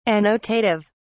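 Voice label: "annotative", British English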